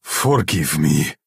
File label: Nord male sorry